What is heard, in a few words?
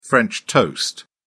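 "french toast", British English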